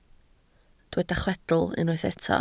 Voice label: "chwedl", Welsh